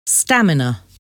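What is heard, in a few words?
"stamina", English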